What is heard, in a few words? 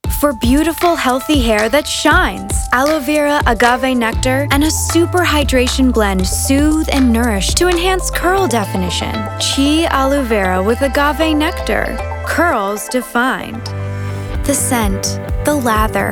Commercial